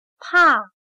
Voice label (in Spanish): "怕", Chino